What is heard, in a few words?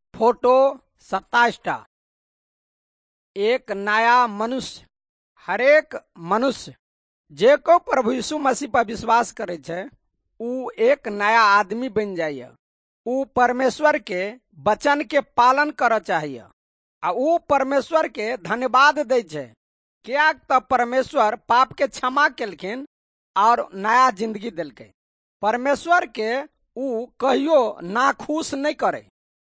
good news maithili dehati, drama; wycliffe bible translators inc